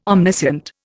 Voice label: "omniscient", Indian

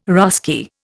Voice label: "Russki", Indian

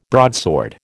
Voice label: "broadsword", American

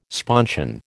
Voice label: "sponsion", American

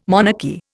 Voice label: "monarchy", Indian

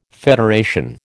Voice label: "federation", American

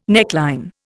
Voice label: "neckline", Indian

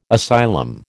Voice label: "asylum", American

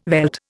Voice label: "veld", Indian

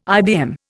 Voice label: "IBM", Indian

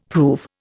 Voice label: "prove", Indian; Dictionary

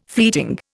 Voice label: "fleeting", Indian

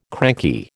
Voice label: "cranky", American

